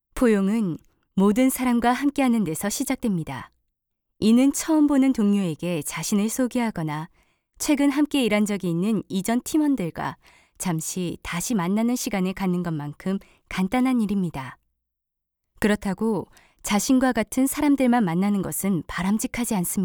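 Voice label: korean female narration; voice demos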